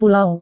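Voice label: "pulau", Indonesian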